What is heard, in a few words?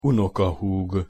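"unokahug", Hungarian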